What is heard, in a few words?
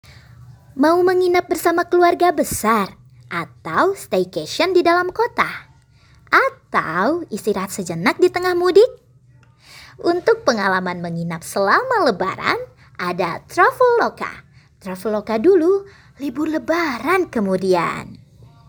Indonesia Casual; Traveloka